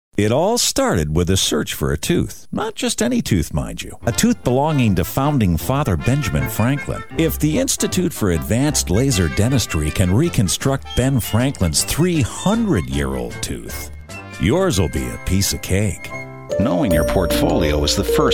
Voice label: commercial; zip zipfel